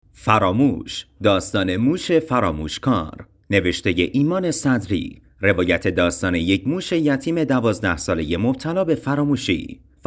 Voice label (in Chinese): 波斯语男, 外籍波斯语男声